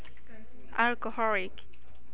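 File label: "alcoholic", Japanese, female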